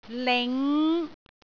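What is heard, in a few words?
"ling5", Cantonese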